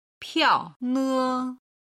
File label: "piaone", Chinese